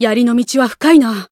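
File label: growth, Japanese; buria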